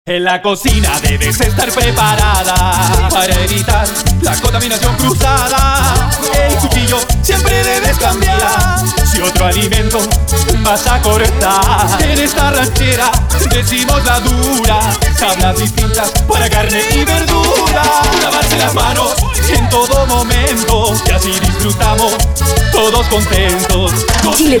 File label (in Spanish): RADIO ALIMENTOS; MINSAL